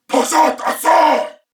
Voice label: Reload Male, en; Dialog; Barks; Battle; Corpus Venus; Warframe; CorpusVenusMaleB